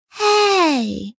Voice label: "Hey", Female child; Exclamation; VoiceBox